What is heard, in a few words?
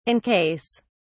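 "in case", British English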